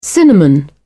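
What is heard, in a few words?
"cinnamon", British English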